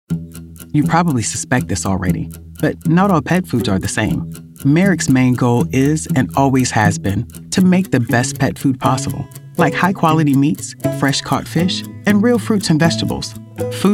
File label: voiceover narration women; chicago